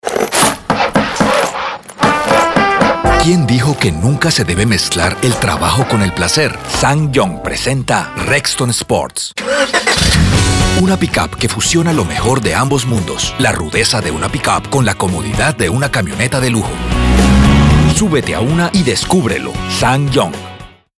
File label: RADIO SPOT; SSANG YONG